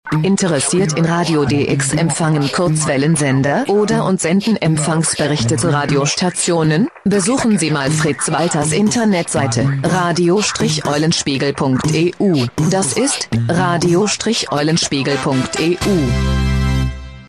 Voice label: PROMO in GERMAN; EULENSPIEGEL